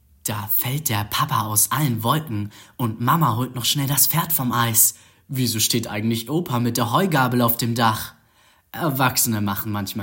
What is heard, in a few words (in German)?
jungen; Biomilch